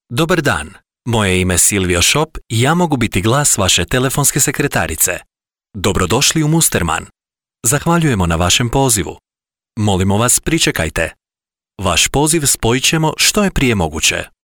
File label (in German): Sprecher Native speaker